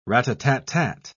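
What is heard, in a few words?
"rat-a-tat-tat", British English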